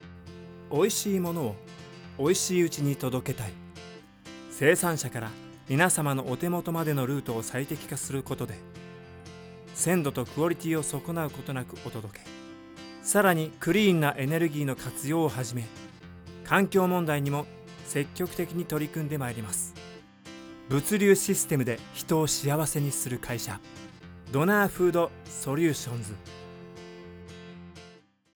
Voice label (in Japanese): 誠実 企業CM